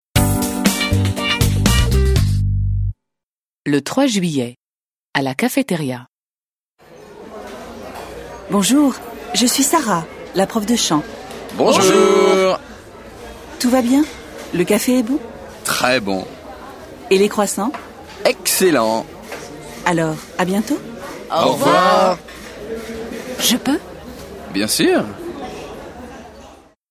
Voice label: French